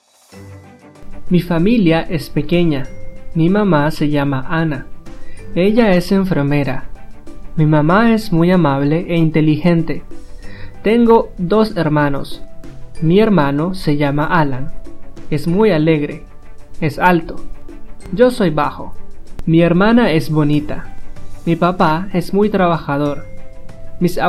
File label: family Spanish